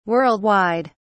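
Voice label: "world wide", English, British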